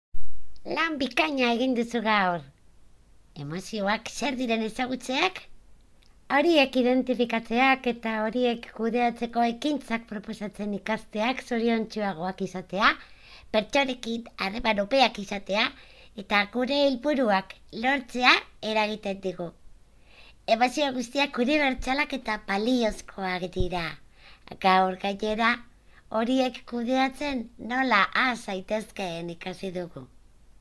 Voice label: locutora infantil euskera basque child voiceover voz niño; voz de niño

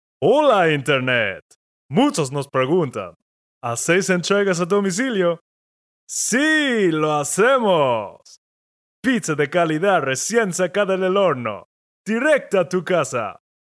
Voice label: locutor norteamericano imitacion voiceover; locutor norteamericano hablando español

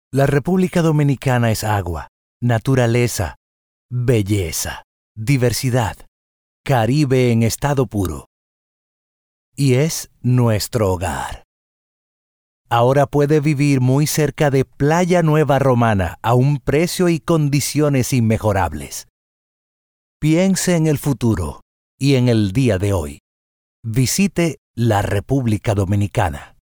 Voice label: locutor dominicano dominican voiceover; locutor de Santo Domingo

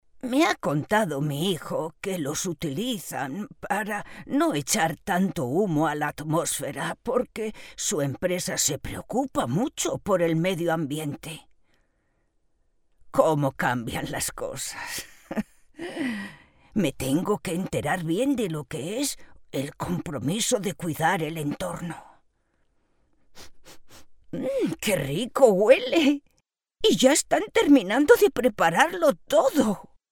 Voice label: locutora abuela spanish voiceover; voces ancianos

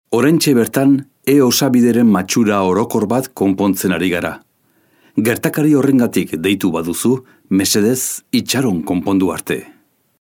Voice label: basque voiceover locutor euskara; locutor vasco

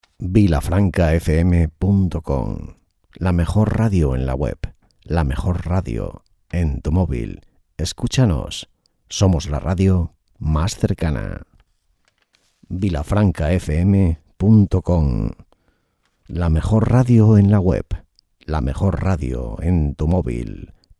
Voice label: indicativo radio; locutor del indicativo de radio Vilafranca

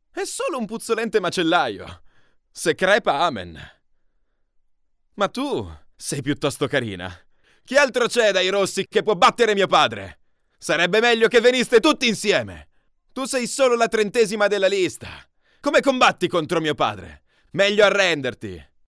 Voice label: locutor italiano italian voiceover; Italian voice artist, locutora italiana de doblaje. Locutor italiano doblaje

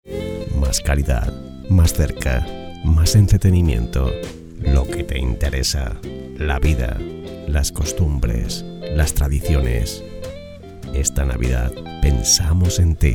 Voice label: locutores navidad calidad musica libre; Mensaje de felicitación navideña para emisoras de radio y de televisión; Más calidad para su programación. Versión con música libre de derechos de autor.

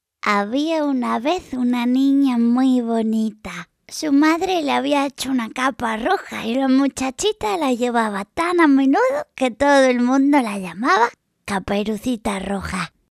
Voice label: locutora voz niña; Children voices; Kid voice over

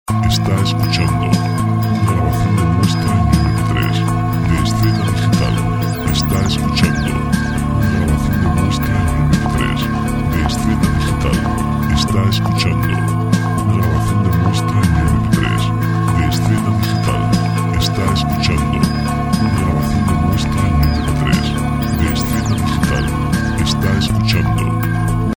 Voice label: anuncios, telefonos

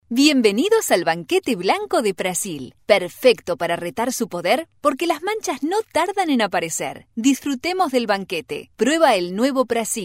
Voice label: locutora uruguaya uruguayan voiceover

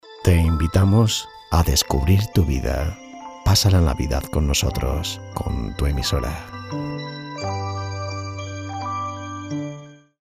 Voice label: jingle navidad; indicativo navideño

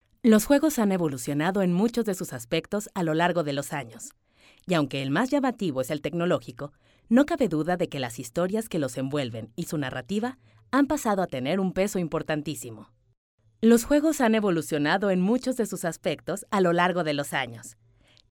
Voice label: locutora mexicana mexican female voiceover; locutora nativa de México

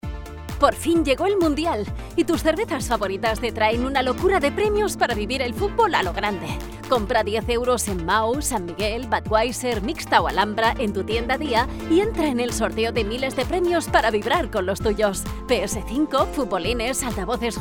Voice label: locutora spanish voiceover

